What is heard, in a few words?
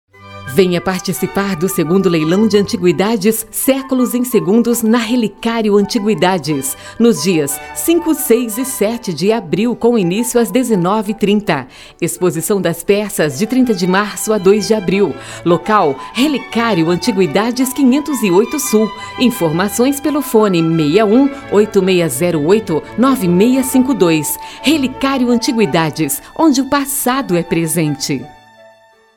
Spot; Relicario; desenvolvimento